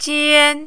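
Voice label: "jian", first tone, Mandarin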